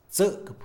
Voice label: "miedo", Mixe, hombre; Emociones; INALI

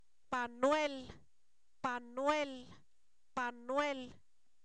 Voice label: Zapoteco, san miguel albarradas; pañuelo; objetos inanimados

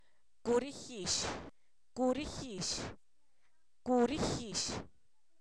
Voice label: col, Zapoteco, san miguel albarradas; comestible

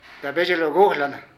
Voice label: Hermano mayor, Zapoteco de Petapa; Parentesco; Vocabulary

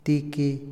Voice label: patear, Otomí, hombre; Movimiento; INALI

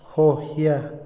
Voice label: "alegrarse", Otomí, hombre; INALI

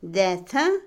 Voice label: Otomí, mujer; maíz; INALI

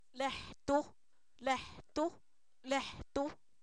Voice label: "ustedes", Zapoteco; pronombres libres

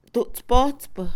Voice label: alfarero, Mixe, Mujer; Acciones; INALI

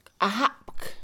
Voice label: cuchara, Mixe, Mujer; Comida; INALI

